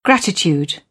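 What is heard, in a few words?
"gratitude", British English; AmericanBritish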